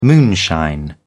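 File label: "moonshine", British English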